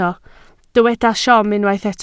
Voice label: "siom", Welsh